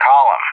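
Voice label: "column" English, speech; JTAC; DCS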